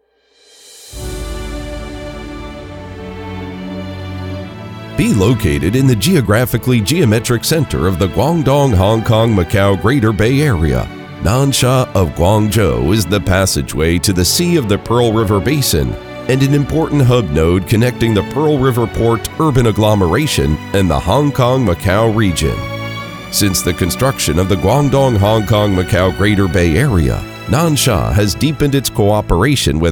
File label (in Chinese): 外籍 宣传片; 南沙宣传片